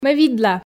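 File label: Komi sentences, female; ме видла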